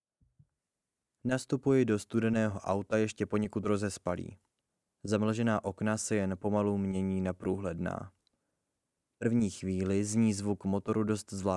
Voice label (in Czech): audio narace